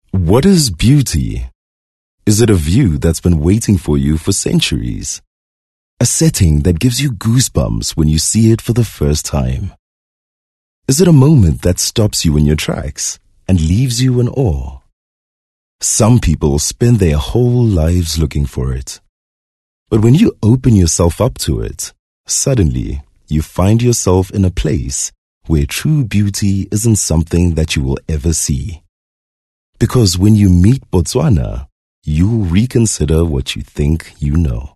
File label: English corporate